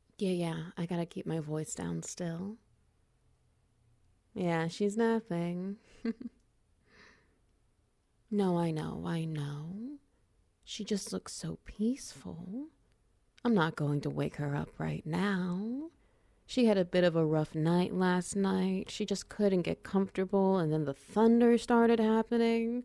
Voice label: Ambience SFX Wet Noises; Foster Fail